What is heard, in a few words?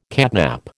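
"catnap", American English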